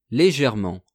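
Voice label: "legerement", French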